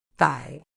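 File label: "thigh", British English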